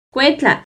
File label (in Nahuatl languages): "cuetla", Náhuatl; tipo de gusano; INALI; UTexas; NAHUATLAHTOLLI